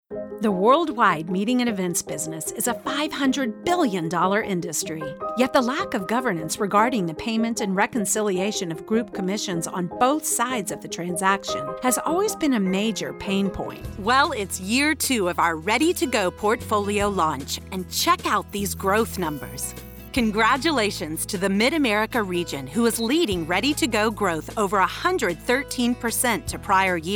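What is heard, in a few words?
Narration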